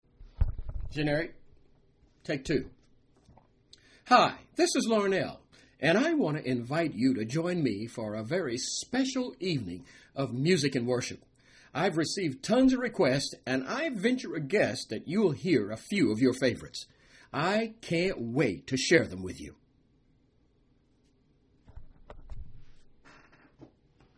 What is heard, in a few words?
generic audio ad